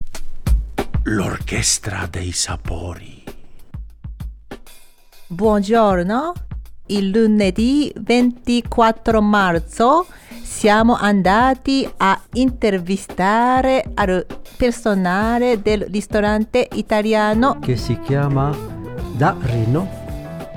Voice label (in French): voix off